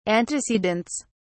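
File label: "antecedents", British English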